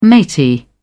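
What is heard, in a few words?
"matey", British English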